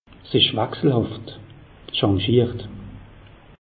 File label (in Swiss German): "wachselhaft", alsace; meteo